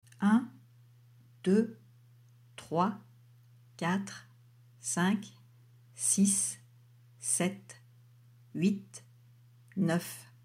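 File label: 1-10, French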